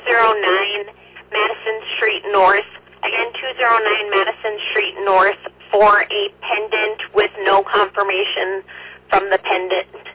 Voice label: Oelwein Ambulance, dispatch

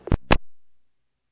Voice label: Ambulance, dispatch

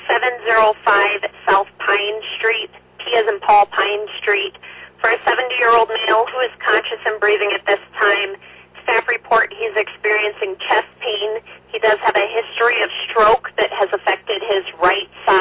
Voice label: Ambulance, dispatch

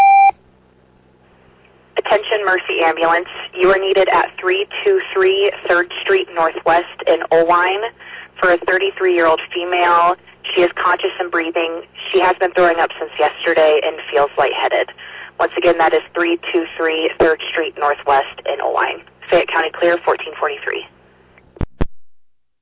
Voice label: Oelwein Ambulance, dispatch

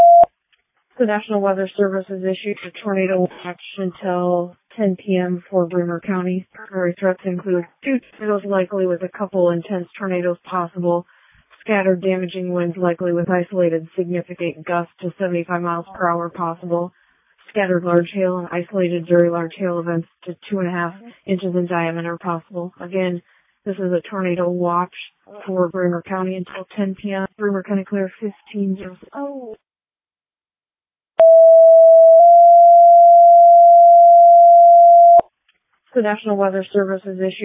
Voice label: All Call, dispatch

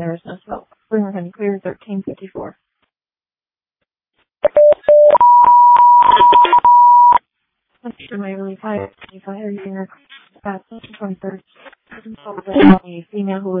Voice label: Waverly Fire, dispatch

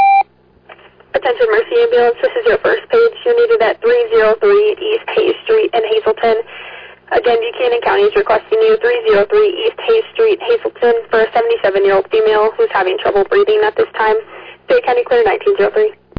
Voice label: Oelwein Ambulance, dispatch

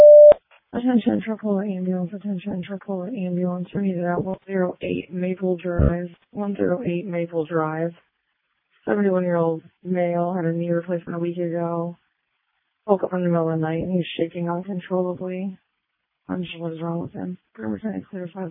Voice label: Ambulance, dispatch

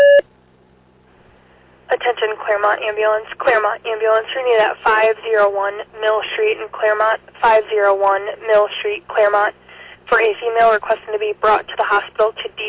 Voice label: Fire, dispatch; Clermont

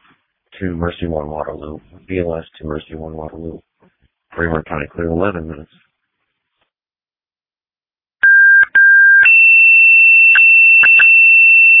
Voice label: Ambulance, dispatch; Sumner

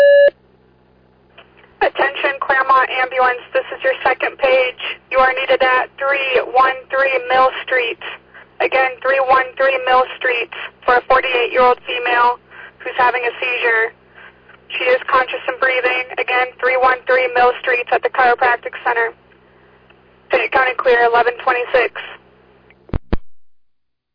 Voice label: Fire, dispatch; Clermont

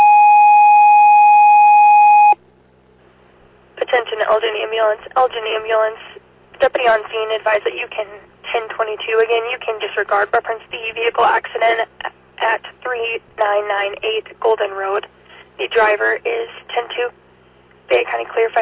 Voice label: Fire, dispatch; Elgin

